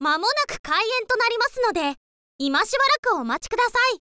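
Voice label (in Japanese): mamonaku kaien, Japanese, girl; voice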